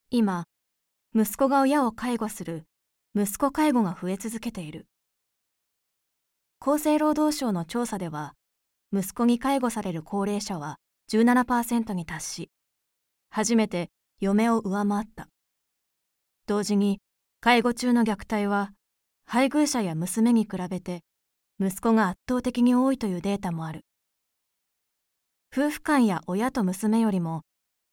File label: female; ドキュメンタリー・深刻